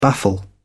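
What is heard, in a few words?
"baffle", British English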